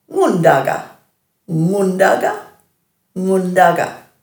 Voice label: "ngundaga", Dhurga